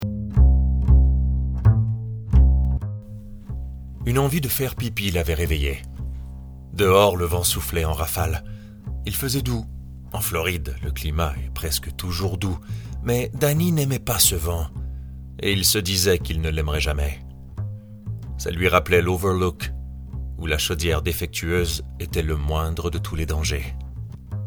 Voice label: narration